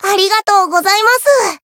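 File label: Voice healed, Japanese; phiro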